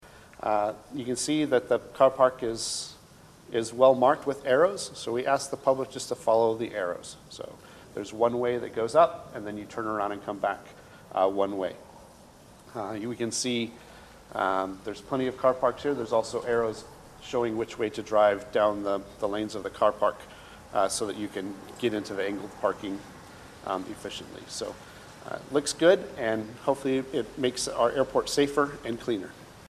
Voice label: Chief English